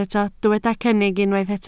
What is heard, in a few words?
"cynnig", Welsh